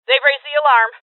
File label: Voices Alarm State Female; rebel cops 2019